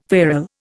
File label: "feral", Indian